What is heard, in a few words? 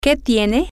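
whats Wrong, Spanish, female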